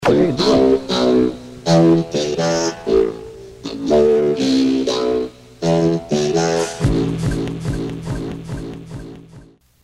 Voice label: Radio